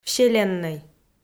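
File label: "вселеннӧй", Komi, female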